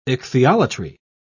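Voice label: "ichthyolatry", British English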